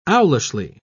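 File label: "owlishly", British English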